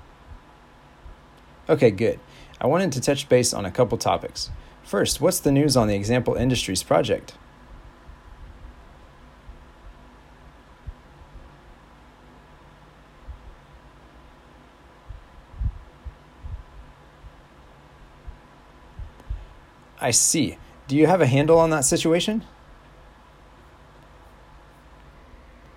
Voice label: Phone Speaking